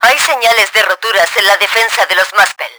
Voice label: cracks, Spanish; Battle Engine Aquila; XBOX

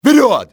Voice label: advance, russian soldier; XBOX

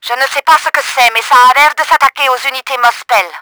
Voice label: attacking muspell, french; Battle Engine Aquila; XBOX

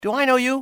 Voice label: "do i know", english, male, civ; XBOX

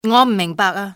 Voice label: dont understand, civ fmale; XBOX

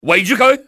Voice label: surround him, cantonese, male; XBOX; enemy

